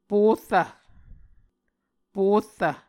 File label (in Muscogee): "porrv", Muscogee